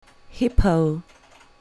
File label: "hippo", English; colour your english; wild animals